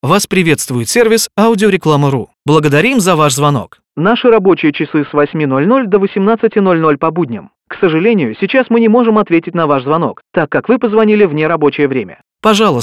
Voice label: male ivr